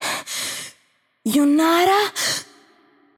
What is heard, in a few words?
el GR Game Outro, VO; Kennen; bloom minigame